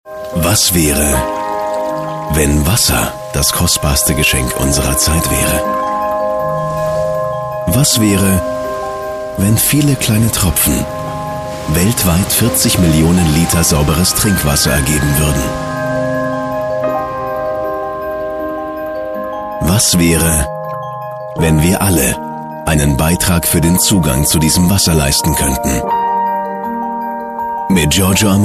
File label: TV Spot; Giorgio Armani